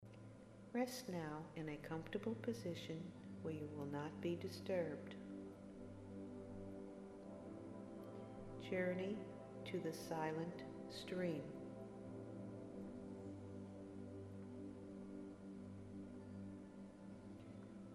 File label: Meditative Imagery; Journey to the Silent Stream